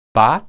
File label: bath, hebrew